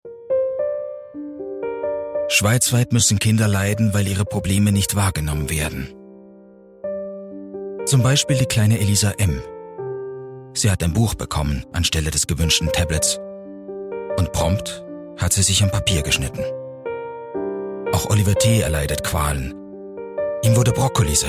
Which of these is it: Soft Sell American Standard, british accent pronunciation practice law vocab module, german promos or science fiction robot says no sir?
german promos